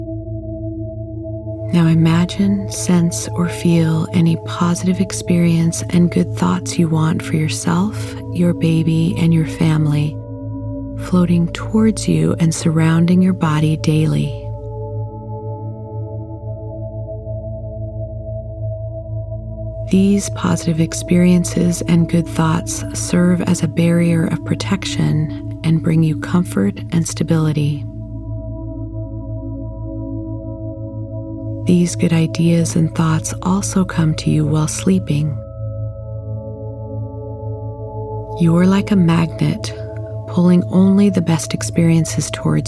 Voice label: Affirmations Subliminal Night